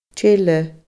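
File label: "teile", Scottish Gaelic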